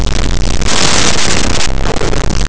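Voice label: "hada", Spanish; laboratori fonetica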